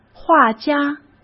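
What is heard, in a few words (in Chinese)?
"画家", Mandarin; chinese-flashcards; hsk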